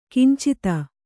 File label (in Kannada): "ಕಿಂಚಿತ", Kannada